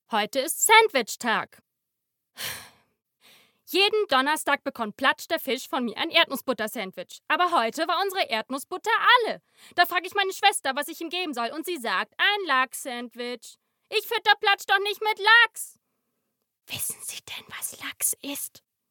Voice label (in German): Kind; LOFT VOICES